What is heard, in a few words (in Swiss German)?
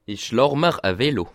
"lach", alsace